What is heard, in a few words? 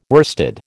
"worsted", American